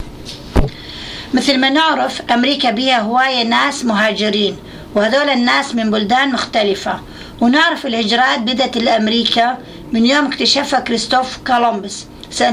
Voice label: Dialogue with Iraqi woman in America, Iraqi Dialect